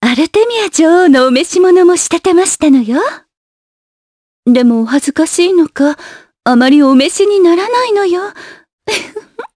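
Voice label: voice dia, voices heroes, Japanese; Yuria; kingsraid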